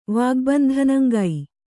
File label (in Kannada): "ವಾಗ್ಬಂಧನಂಗೈ", Kannada